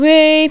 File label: FEMALE